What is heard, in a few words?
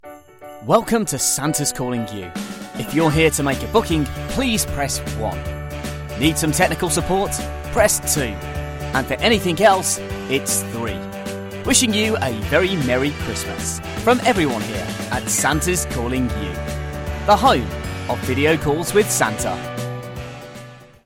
english british ivr